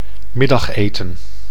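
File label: "middageten", Dutch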